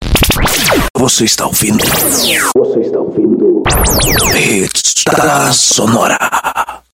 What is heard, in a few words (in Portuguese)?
vinheta; hits da sonora